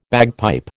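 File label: "bagpipe", American English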